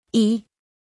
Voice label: Phoneme Female; Commonscript; Lynguistykon